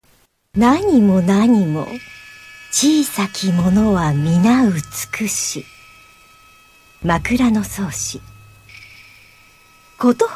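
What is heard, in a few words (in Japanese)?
日本語ナレーター; GAIKEI Models & Narrators; 語り・朗読と他分野との相乗効果で聴かせる・・・を追求。公演企画、演出にも挑戦中。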